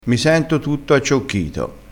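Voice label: "acciocchito", Italian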